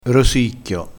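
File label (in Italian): "rosicchio", dialetto crespinese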